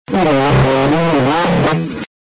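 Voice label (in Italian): "cuore", Italian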